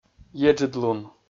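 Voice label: "еджыдлун", Komi, male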